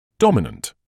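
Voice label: "dominant", British English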